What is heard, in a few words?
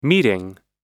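"meeting", American English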